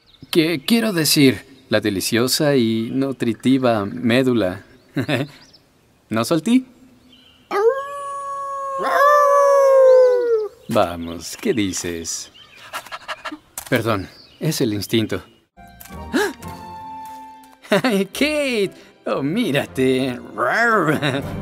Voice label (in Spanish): Doblaje